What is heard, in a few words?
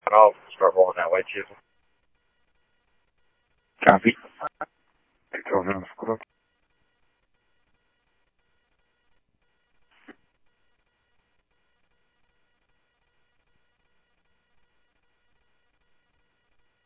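Phoenix police department, scanner; PHOENIX PD-200 A Phoenix PD; ThompsonPeakHigh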